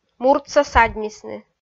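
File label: "муртса садьмисны", Komi, female; kpv audio dict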